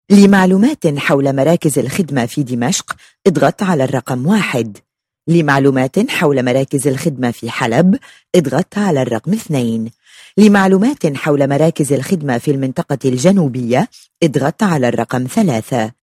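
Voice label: message Arabic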